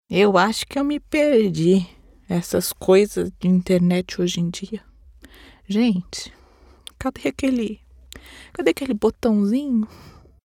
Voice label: error page 404 grandma female brazilian portuguese voiceover